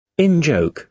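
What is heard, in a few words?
"in-joke", British English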